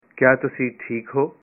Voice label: Are you okay, punjabi